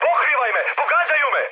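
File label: Serbian aircraft damaged mood med, speech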